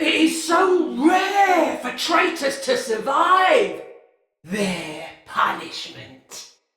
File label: See Player Dist, Male; Battle; Barks; Dialog; Sinecure Banneret